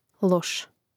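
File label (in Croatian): "loš", Croatian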